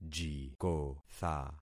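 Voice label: "jikotha", concatenated syllables; Affixing experiment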